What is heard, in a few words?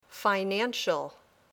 "financial", English